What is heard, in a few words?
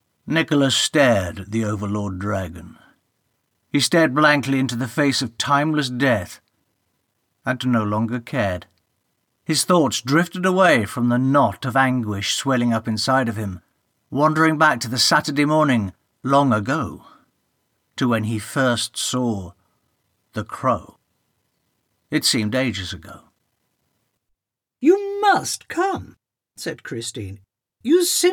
Narration